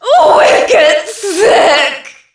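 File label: "whicked sick", female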